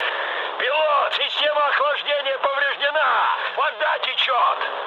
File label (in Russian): damaged water, mood high, speech ru; War Thunder